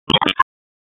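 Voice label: Police Southeast District Dispatch; wake p25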